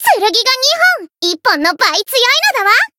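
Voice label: encounter, Japanese; thillelille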